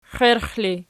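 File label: "xierx" ergative, Archi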